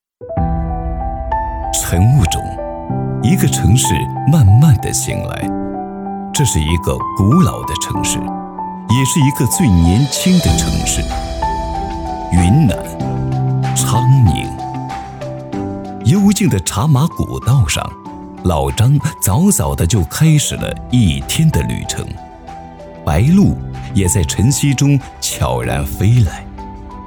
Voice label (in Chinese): 男 宣传片 城市类 航拍; 云南-昌宁